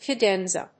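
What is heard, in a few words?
"cadenza", English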